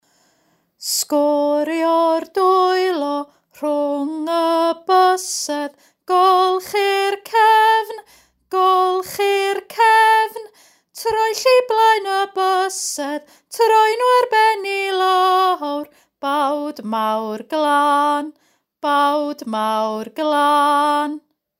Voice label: Welsh

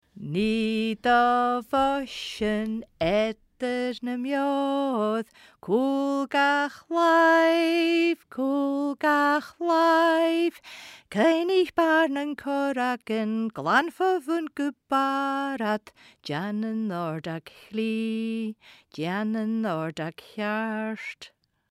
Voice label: Gaelic Scotland

